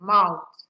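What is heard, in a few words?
"mouth", Nigerian Pidgin, female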